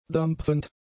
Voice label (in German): "dampfend", German; adjectives